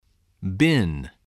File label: "bin", English